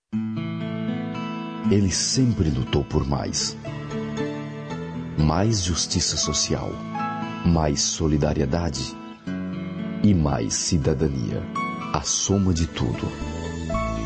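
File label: Portuguese male voice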